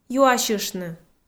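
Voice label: "юасьыштны", Komi, female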